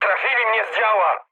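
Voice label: Polish damaged anti-aircraft mood medium; War Thunder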